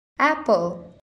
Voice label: "apple", English